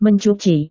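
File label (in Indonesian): "mencuci", Indonesian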